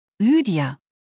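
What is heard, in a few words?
"Lydia", German; maedchennamen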